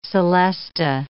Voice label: "celesta", English

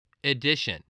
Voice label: "addition", English voice

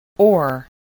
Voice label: "ore", English voice

